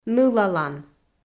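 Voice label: "нылалан", Komi, female; audio dict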